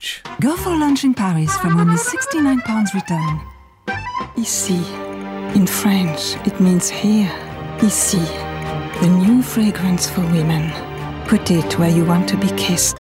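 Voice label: English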